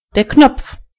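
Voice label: "button", German; gcompris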